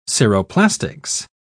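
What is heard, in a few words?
"ceroplastics", British English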